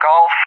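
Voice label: "Golf", English; JTAC; Alphabet; DCS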